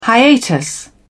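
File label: "hiatus", English, British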